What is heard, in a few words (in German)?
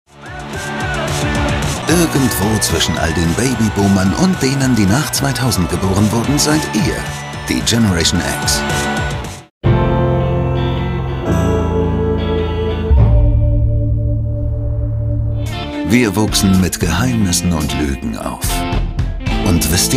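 Kommentar Reportage; Generation X; NatGeo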